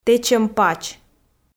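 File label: "тэчӧм пач", Komi, female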